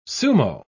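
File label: "sumo", British English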